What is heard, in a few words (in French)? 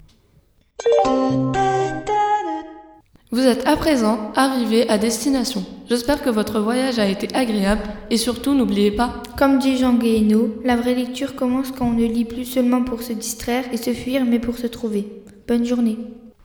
ANNONCE SNCF ARRIVEE